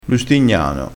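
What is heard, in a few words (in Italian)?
"lustignano", dialettale crespinese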